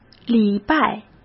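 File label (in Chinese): "礼拜", Mandarin Chinese; chinese-flashcards; hsk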